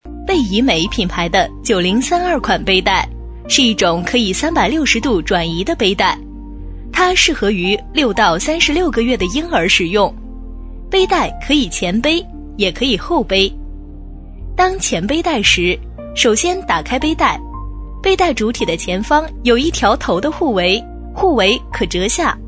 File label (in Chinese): 女声【介绍解说类】; 背带